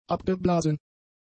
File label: "abgeblasen", German; adjectives